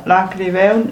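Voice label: "Lag Rivaun", Romansh, sursilvan; Валензе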